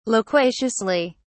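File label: "loquaciously", British English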